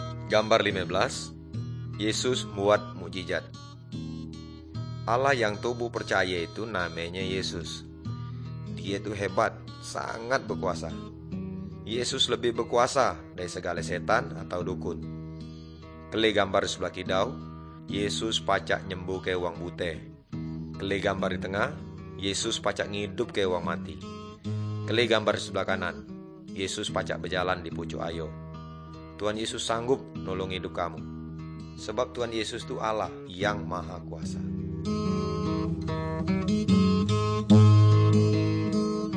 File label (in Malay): good news melayu tanjung raja